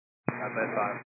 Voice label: 122 725MHz, scanner radio